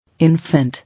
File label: "Infant", English